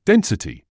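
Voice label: "density", British English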